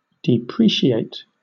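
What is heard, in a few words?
"depreciate", British English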